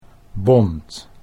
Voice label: "bond", Dutch